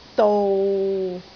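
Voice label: "dou6", Cantonese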